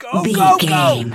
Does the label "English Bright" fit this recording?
No